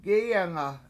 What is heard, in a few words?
"Inside", Washo; gEyanga